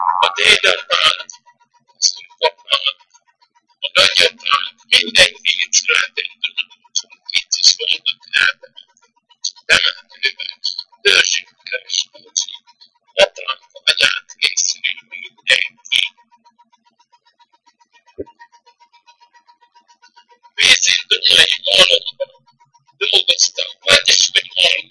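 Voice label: dialect collection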